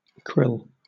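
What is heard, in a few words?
"krill", British English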